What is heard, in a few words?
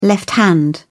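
"left hand", British English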